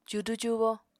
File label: "cudu-cubo", Apatani